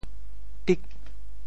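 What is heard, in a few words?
"tik8", Teochew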